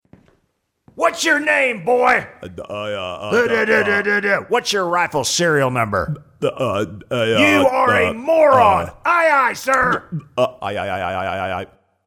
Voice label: Comedy Drill Sargeant